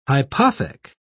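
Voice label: "hypothec", British English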